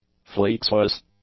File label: "flexuous", english